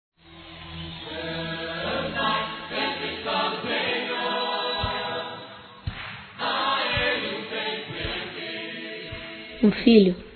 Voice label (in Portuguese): Aniversário Mãe Infantil evangélico Masculino; Telemensagens 2017; Aniversários